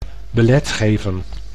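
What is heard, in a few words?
"belet geven", Dutch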